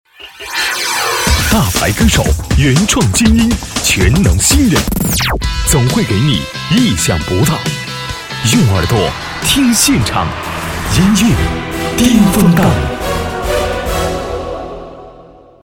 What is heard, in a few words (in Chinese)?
男 广告, 国语男声配音, 品质大气